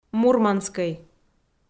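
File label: "мурманскӧй", Komi, female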